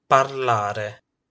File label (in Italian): "parlare", Italian